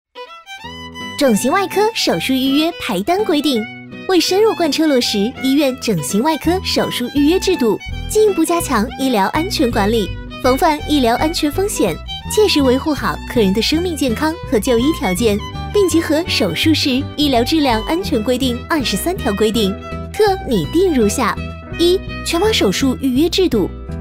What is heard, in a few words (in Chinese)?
女; 飞碟说; 整形外科; feidieshuo